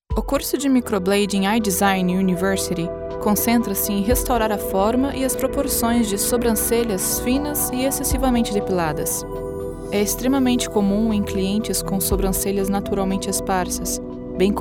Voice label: elearning training young flat elegant female brazilian portuguese; eyedesignNY